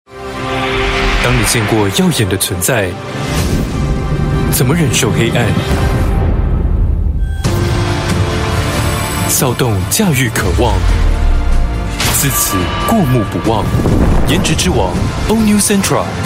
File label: Taiwanese